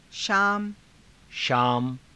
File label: "shaama", Hindi